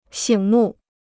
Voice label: "醒目", Chinese

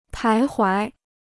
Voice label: "徘徊", Chinese

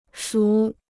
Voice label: "熟", Chinese

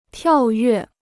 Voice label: "跳跃", Chinese

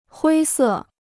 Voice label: "灰色", Chinese

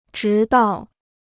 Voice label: "直到", Chinese

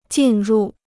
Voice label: "进入", Chinese

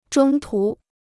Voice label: "中途", Chinese

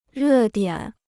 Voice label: "热点", Chinese

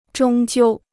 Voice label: "终究", Chinese

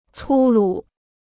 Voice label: "粗鲁", Chinese

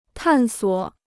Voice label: "探索", Chinese

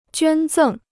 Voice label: "捐赠", Chinese

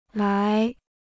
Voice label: "来", Chinese

